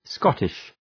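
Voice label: "Scottish" English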